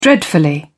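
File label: "dreadfully", British English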